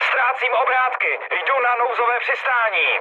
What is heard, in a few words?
damaged engine, speech cze, mood high; War Thunder